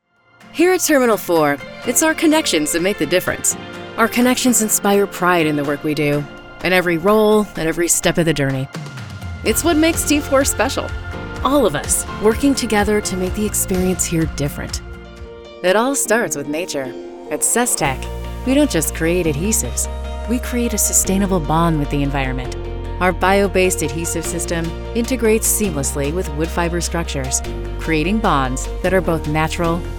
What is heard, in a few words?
Corporate Narration